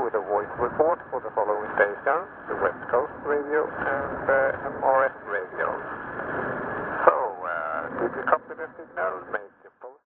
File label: Radio Viking Scandinavia